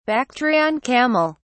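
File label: "bactrian camel", British English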